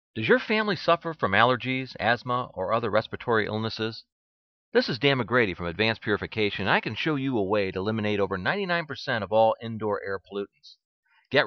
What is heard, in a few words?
commercial